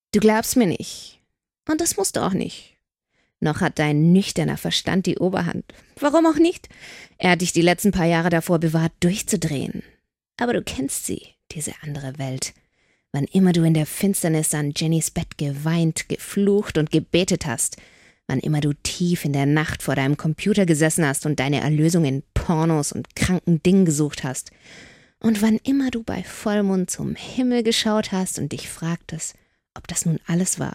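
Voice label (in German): Charakter, Frauen Stimmen; Loreley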